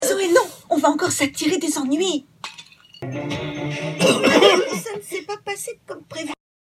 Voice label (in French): VOIX DESSIN ANIMÉ